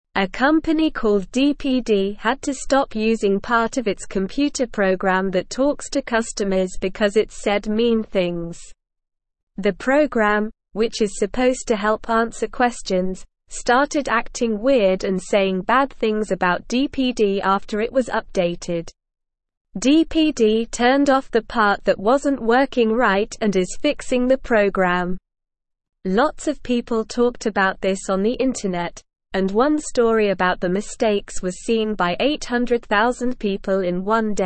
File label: English Lower Intermediate SLOW Reading; English Newsroom